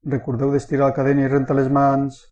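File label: toilet, Catalan; household; tuxpaint-stamps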